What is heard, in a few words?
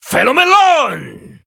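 Male, Chinese; Blood Elf; Flamestrike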